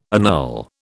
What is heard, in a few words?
"annul", British